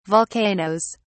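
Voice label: "volcanoes", British English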